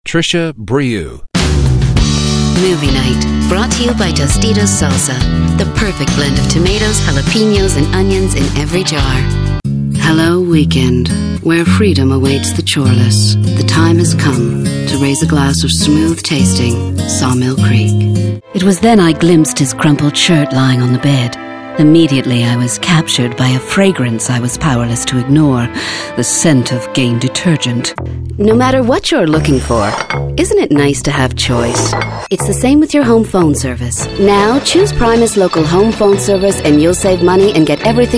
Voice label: Commercial Demo